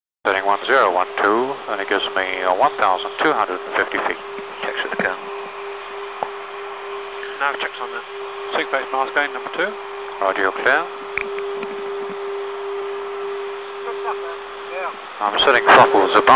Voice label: Aircraft Radio Transmission; Hercules Crew Radio Transmission.